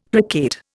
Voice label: "placate", Indian; Dictionary

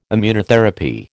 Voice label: "Immunotherapy", American

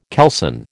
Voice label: "kelson", American

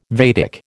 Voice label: "Vedic", American

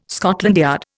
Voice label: "Scotland Yard", Indian

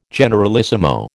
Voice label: "generalissimo", American

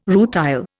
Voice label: "rutile", Indian

